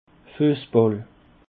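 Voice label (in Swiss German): "fussball", Alsatian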